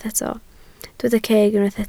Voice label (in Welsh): "ceg", Welsh